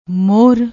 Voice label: "mohar", Punjabi

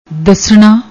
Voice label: "dasna", Punjabi

